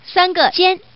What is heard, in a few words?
Woman, tuple